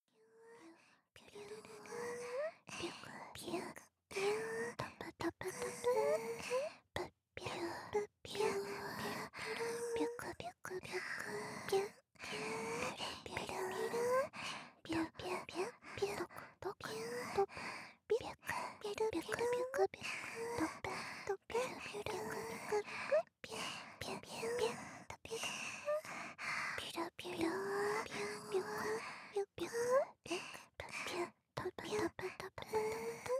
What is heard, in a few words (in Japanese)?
ぴゅっぴゅ囁きループ 両耳